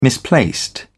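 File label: "misplaced", British English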